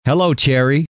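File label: "hello", male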